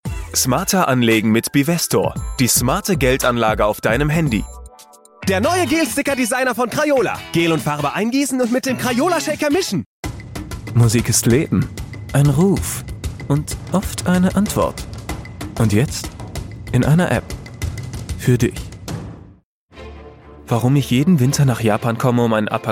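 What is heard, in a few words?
DE Commercial, German; GoLocalise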